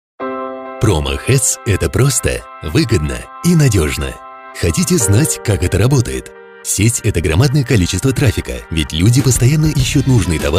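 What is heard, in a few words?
Russian, male; lektoring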